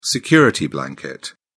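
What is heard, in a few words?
"security blanket", British English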